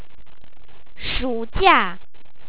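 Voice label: "shu3jia4", Chinese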